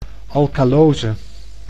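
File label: "alkalose", Dutch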